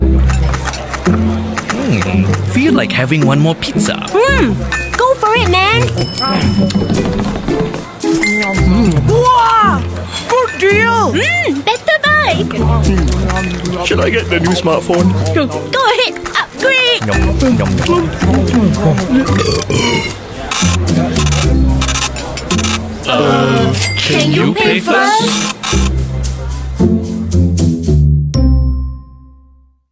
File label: Asian English; CPF Instagram